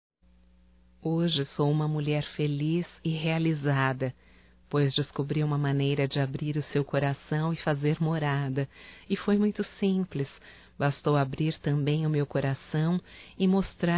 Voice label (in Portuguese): DECLARAÇÃO, Declaração de amor, fem; Telemensagens 2017